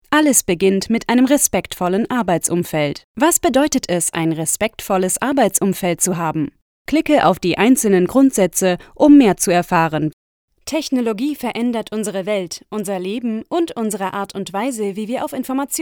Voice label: e-learning, German, female